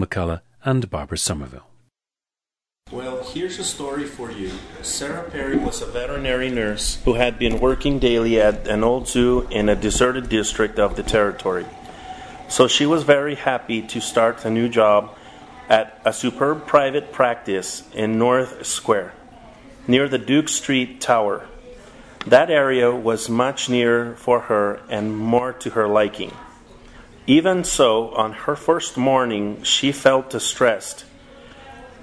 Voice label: jalisco, dialects